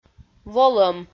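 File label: "волӧм", Komi, female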